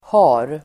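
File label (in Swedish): "har", Swedish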